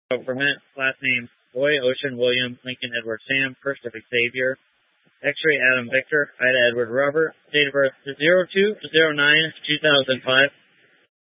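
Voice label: Incident Tac 3, Scanner audio recording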